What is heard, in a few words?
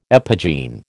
"epigene", American